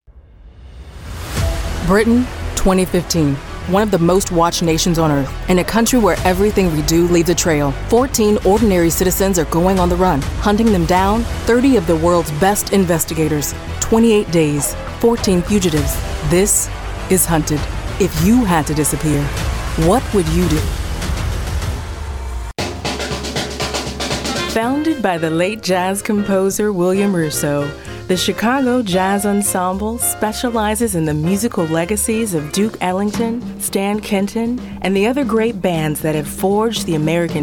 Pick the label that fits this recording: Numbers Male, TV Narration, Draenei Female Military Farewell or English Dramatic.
TV Narration